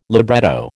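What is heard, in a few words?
"libretto", American English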